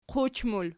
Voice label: "quch", Archi